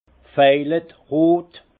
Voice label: "vejelettrot", alsace; vin; rose violet

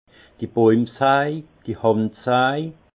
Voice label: "baumsaj", alsace; vin; scie à main

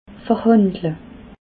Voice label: "verhandle", alsace

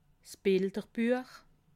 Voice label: "bilderbuech", alsace

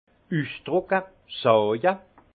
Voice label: "usdrucke", alsace; vin; exprimer

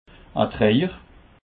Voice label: "treuer", Alsace

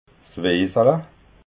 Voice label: "wiesala", alsace

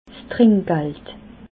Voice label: "trinkgald", alsace

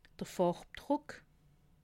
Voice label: "farbdruck", alsace

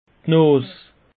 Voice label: "nas", alsace; peche

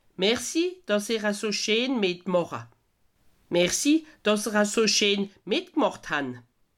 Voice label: "mitmacha", alsace

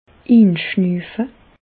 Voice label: "inschnuufe", Bas Rhin; inspirer; medecine

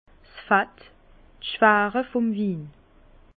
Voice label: "fett", Bas Rhin; vin; gras du vin

